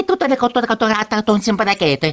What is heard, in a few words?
gibberish; mario